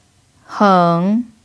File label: "heng3", Mandarin pinyin